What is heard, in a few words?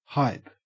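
"hype", Australian English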